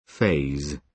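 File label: "phase", British English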